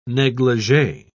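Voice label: "neglige", British English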